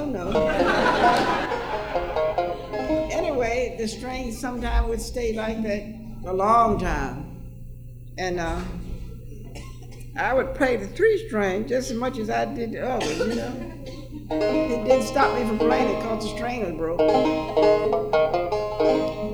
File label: banjo story; Bluegrass